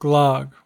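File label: "glogg", US English; pronunciations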